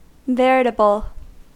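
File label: "veritable", American English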